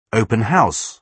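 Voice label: "open house", British English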